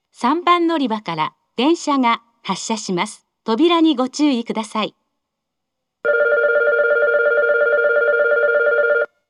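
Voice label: departure, station announcement, Fukuoka city subway; 発車-3番のりば; 福岡市地下鉄 空港線・箱崎線 中洲川端駅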